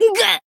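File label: damaged, Japanese; ctos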